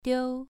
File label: "diu2", pronunciation